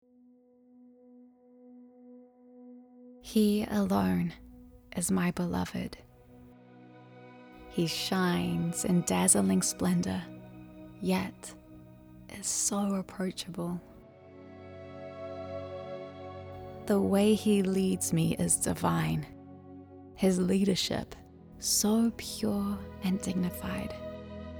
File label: Narration Bible